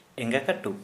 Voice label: Santali thumb

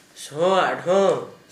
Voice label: "sǝhõ aʔɖhõ", Gta, Dialect: Hill; kindle (vb.) (fire)

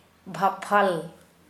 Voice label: Kera Mundari F boiled

